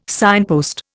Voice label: "signpost", Indian

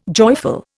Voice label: "joyful", Indian

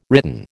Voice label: "written", American

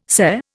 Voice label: "SCE", Indian

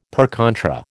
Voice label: "per contra", American

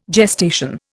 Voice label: "gestation", Indian

